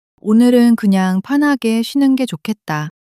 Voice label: sadness; monologue

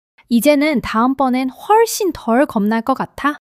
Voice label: joy

